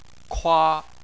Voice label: "kua1", Chinese